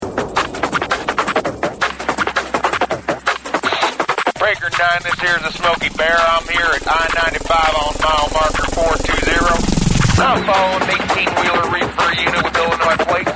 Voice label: Promo; Maui Woweee Five-0; RantRadio; RantMedia; Station Promos-Listener Submitted